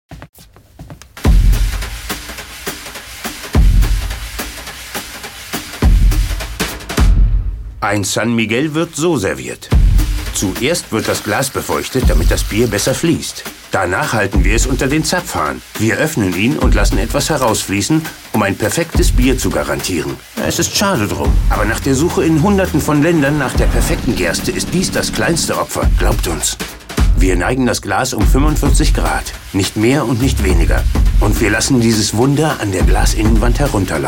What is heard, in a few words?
Commercial, GERMAN MALE